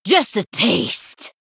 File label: Female Sanlayn Attack